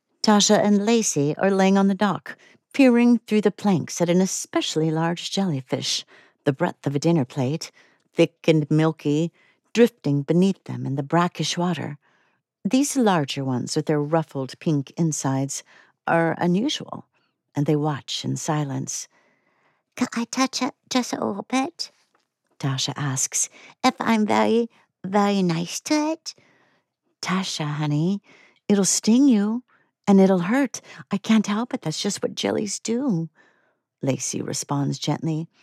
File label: Literary Fiction 3rd Pov Mother and small child